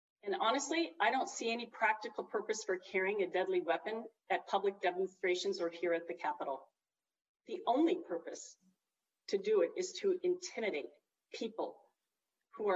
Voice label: Open Carry Floor Speech; Kuderer